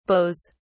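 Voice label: "beaus", British English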